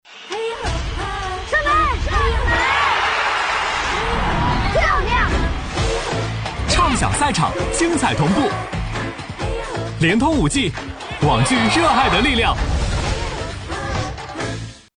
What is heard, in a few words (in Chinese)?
男 广告 热情; 联通